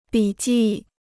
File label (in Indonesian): "笔记", Bahasa Mandarin